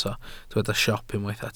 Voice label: "siop", Welsh